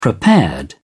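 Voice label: "prepared", British English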